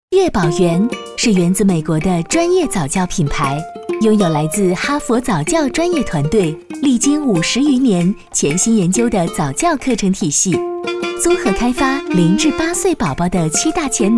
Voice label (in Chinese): 女 专题 儿童早教; 悦宝园